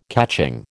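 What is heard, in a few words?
"catching", American English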